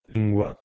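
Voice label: "dngweh2", Proto-Indo-European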